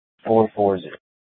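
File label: Police Department North Dispatch, scanner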